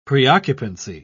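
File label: "preoccupancy", British English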